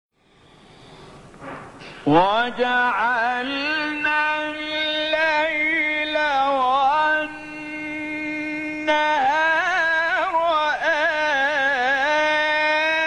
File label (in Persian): تلاوت سوره اسرا; آیه 12